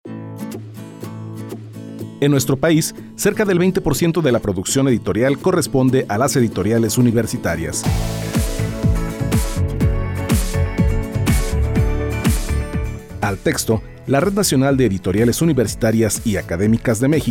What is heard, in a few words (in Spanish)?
SPOT